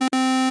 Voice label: False High

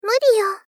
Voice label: Gentle Refuse "Muri yo"

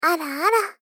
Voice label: Gentle Others Ara ara

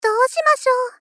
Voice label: Gentle Trouble "Do shimasyo"

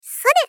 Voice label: Gentle Attack "Sore"

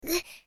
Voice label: Damage Voice

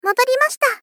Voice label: Polite Return Modori mashita

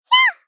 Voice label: Polite, Surprised, "Hyaa"; Rei Yumesaki

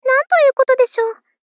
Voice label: Polite Trouble "Nanto iu koto desho"; Rei Yumesaki

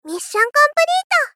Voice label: Moe Victory Mission complete; Rei Yumesaki

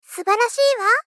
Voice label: Gentle Praise "Subarashi wa"; Rei Yumesaki

